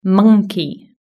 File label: "monkey", british english